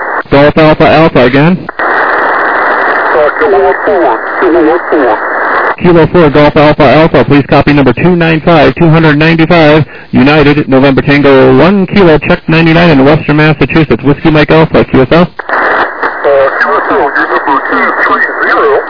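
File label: lower sideband 7MHz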